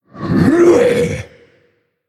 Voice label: Female Tauren Undead Attack